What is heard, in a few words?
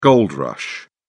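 "gold rush", British English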